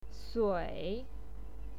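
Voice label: "sui", Mandarin Chinese, third tone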